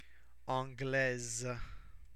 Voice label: "anglaise", French